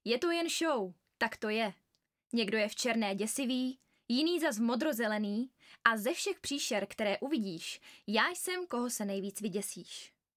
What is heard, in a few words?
czech